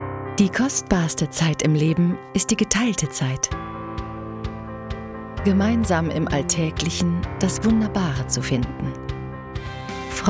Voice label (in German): imagevideo pfleger reisen